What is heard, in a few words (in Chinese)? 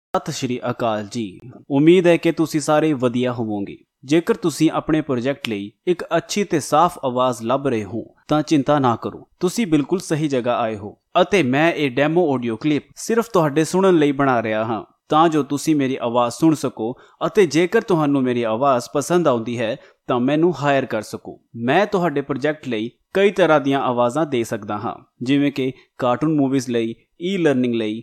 印地语男 外语 小语种 样音